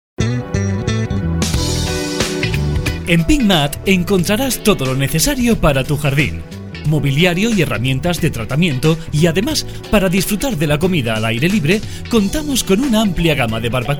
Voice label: PROMO JARDIN ESPANOL; pinturas andalucia

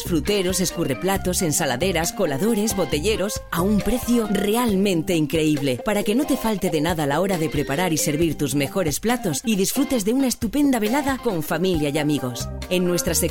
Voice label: plastico cocina; ofertas vigentes; CASTELLON; GENERICAS ESPANOL

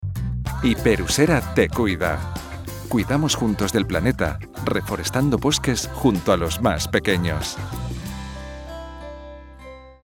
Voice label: CUÑAS MEDIO AMBIENTE

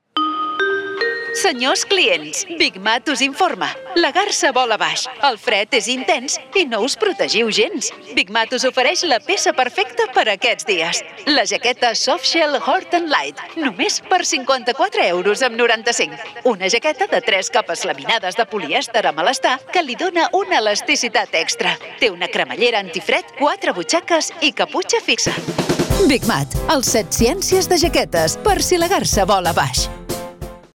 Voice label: Cuña Chaqueta Catalan; BIG MAT; SOCIOS CATALAN

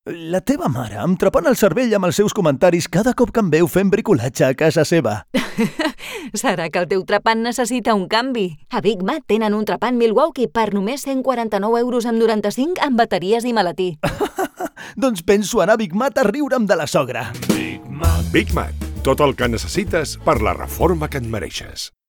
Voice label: Taladro Catalan; BIG MAT SOCIOS CATALAN

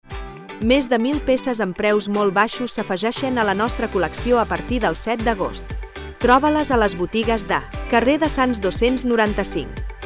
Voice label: SOLO CATALAN; HUMANA-BARCELONA

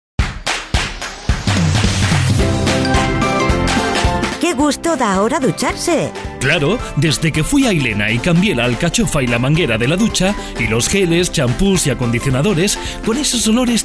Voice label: BAÑO MENSAJE FIJO

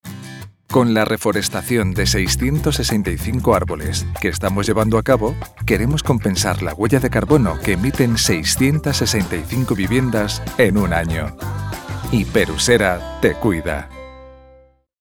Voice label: CUÑA MEDIOAMBIENTE

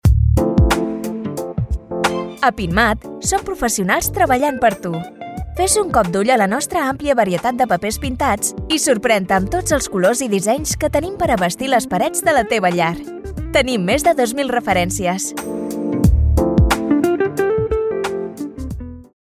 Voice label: CATALAN; pinturas andalucia

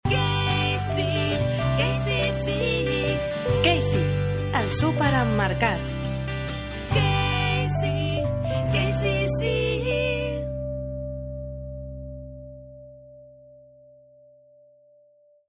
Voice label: Jingle largo con voz; SUPERMERCADOS KEISY (Grupo FACSA)

